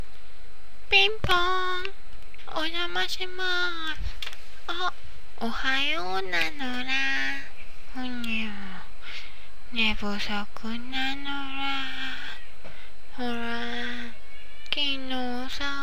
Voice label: game voice